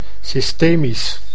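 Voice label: "systemisch", Dutch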